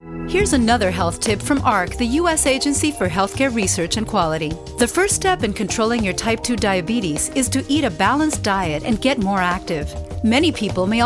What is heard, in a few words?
English US Diabetes PSA; HHS Diabetes PSA - English